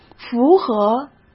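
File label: "符合", Mandarin Chinese; chinese flashcards; hsk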